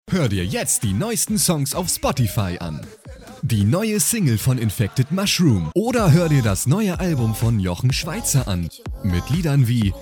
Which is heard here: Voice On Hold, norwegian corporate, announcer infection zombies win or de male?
de male